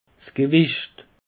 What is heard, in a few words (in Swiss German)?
"gewicht", alsace; peche